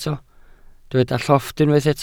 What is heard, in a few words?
"llofft", welsh